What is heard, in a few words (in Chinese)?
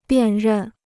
"辨认", Chinese